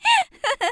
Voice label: voice Fun; Shua